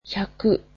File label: "one hundred", japanese